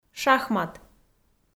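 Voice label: "шахмат", Komi, female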